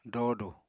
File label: "doodu", Apatani